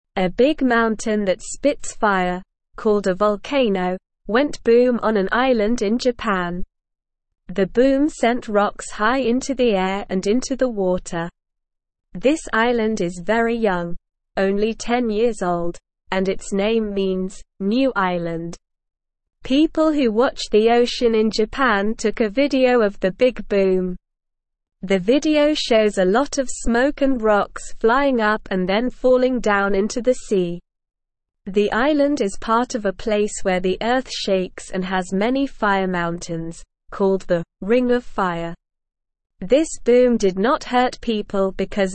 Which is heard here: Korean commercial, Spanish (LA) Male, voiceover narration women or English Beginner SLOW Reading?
English Beginner SLOW Reading